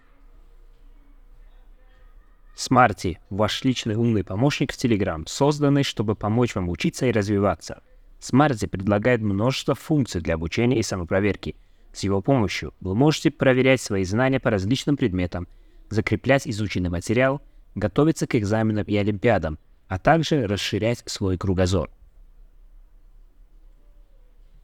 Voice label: podcast enhance; adobe